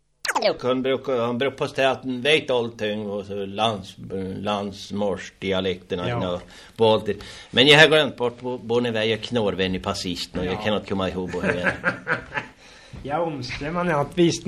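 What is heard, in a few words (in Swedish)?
Hietaniemi Norrbotten, Svenska sagor, dialekter; Folkminnen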